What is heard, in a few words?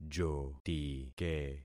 "jotike", concat syllables; Affixing experiment